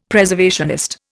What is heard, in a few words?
"Preservationist", Indian; Dictionary